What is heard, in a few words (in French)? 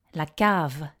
"cave" French; house in french